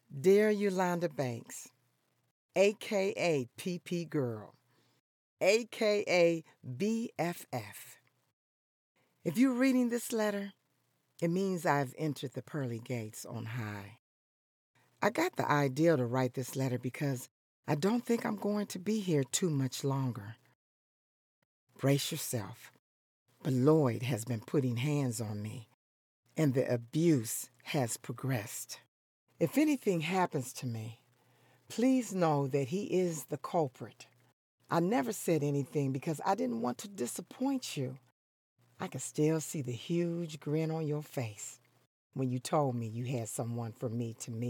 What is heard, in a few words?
Voiceover film storytelling